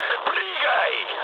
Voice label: bailout, mood high, speech ru; War Thunder